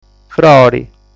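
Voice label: "Frori", Sardinian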